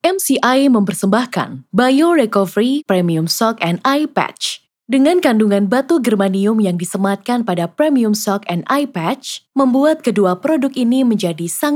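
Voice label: formal Indonesian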